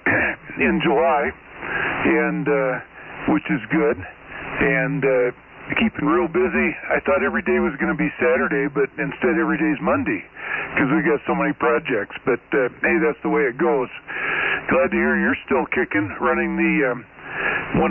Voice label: Winona, WI. 60L 100V & Drake 2B, vintage ssb